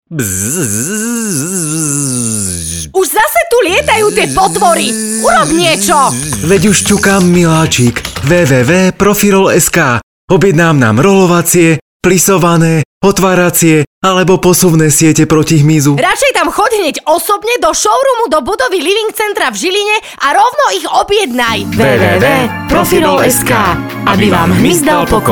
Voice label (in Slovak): radio